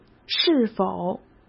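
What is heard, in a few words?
"是否", Mandarin Chinese; chinese-flashcards; hsk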